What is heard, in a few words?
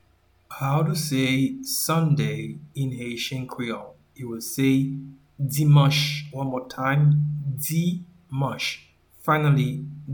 "Dimanch", Haitian Creole; “Sunday” is translated as “Dimanch” in Haitian Creole